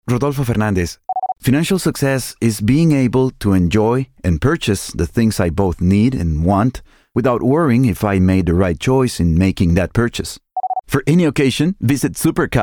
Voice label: English Commercial